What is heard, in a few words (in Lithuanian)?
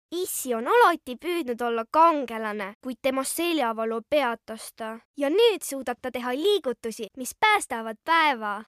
(MOT) Estų balsas; moteris